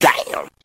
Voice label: "damn", expletives